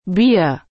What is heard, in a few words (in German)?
"Bier", German